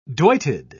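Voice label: "doited", British English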